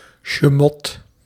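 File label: "Sjemot", Dutch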